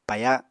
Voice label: "paya", Apatani; [pajaɁ] v. attack; quarrel